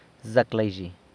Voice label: "Zac laizhi!" Good midday!, San Lucas Quiaviní Zapotec